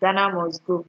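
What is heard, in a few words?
"ghana must go", Nigerian Pidgin, female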